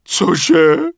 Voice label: Peasant What; wowpreklad; czwow